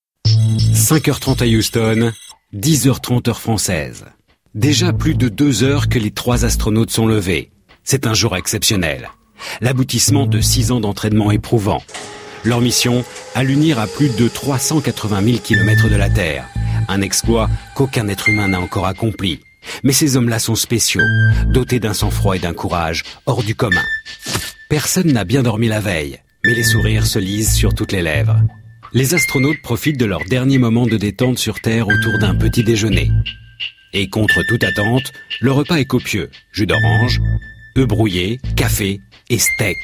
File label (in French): Voix off; Sciences X